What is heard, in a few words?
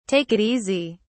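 "take it easy", British English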